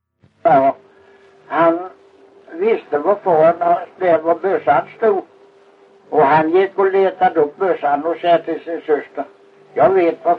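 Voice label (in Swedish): Kristianopel, Swedish dialect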